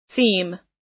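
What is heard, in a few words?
"theme", US English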